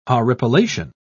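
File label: "horripilation", British English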